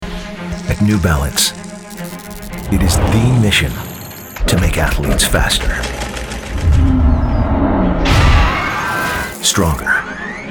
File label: narration industrial